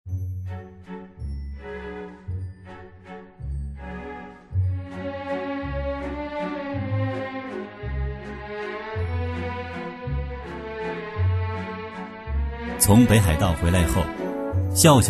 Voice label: 男 预告; 非诚勿扰预告; 央视

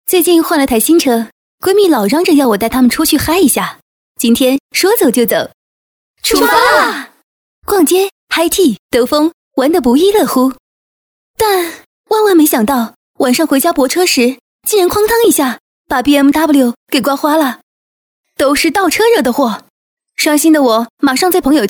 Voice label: 女 广告 车载摄像头; 卡仕达 荧星光车载摄像头

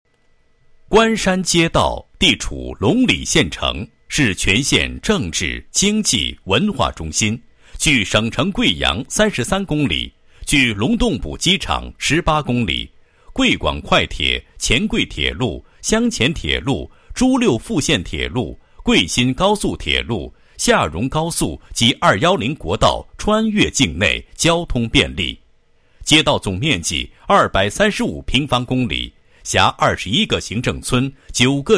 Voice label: 男 工作汇报; 某街道工会

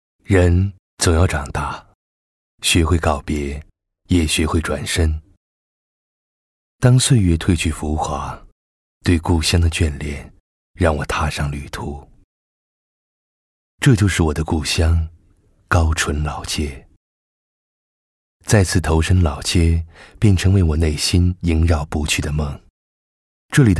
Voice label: 男 自白语气, 国语男声配音; 老街; 内心独白

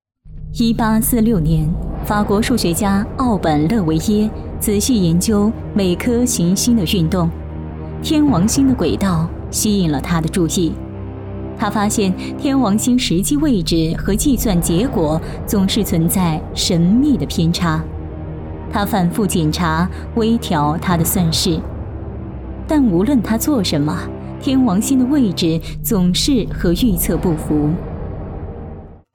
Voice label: 女, 专题, 大气神秘; 法国数学

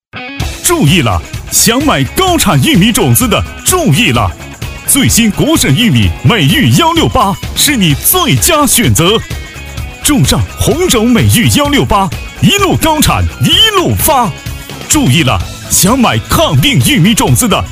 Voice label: 男, 广告; 豫玉; 农化种子

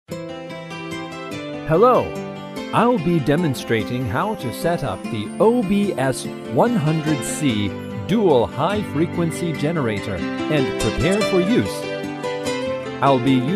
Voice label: 外籍英男, 讲解; 高频发生器讲解

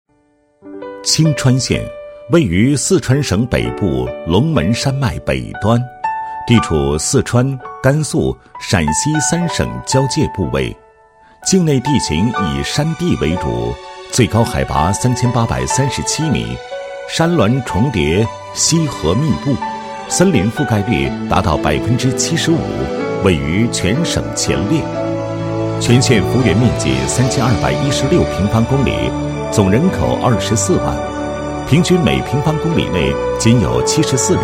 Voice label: 男 专题 生猪养殖解说词; 青川

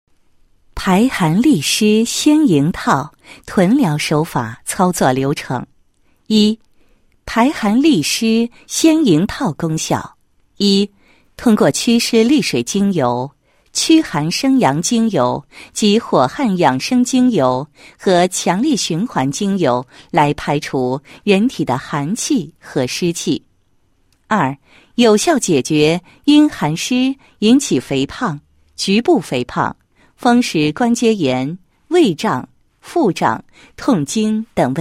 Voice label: 女 解说专题 臀疗手法操作流程解说; 排寒利湿纤盈套

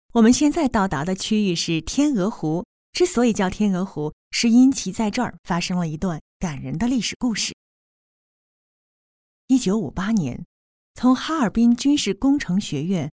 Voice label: 景区解说

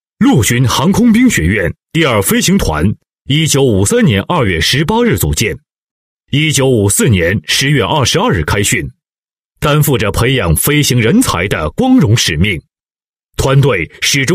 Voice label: 男 专题 陆军航空兵 铿锵有力, 国语男声配音; 大气专题

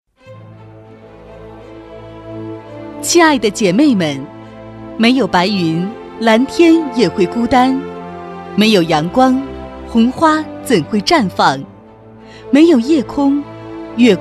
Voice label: 女 人物类颁奖类; 信合

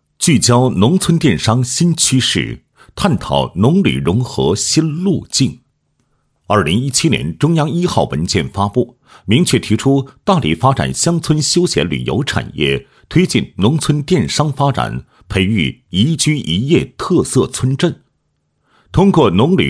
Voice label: 男, 解说词, 电商培训班解说

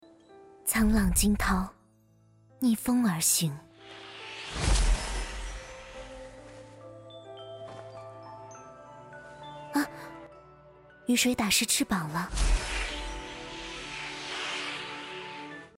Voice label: 游戏 淡定温柔精灵仙女

